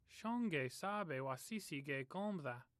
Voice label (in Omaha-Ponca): "sabe", Omaha language